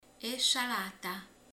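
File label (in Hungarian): "saláta", Hungarian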